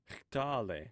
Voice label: "xtathe", omaha language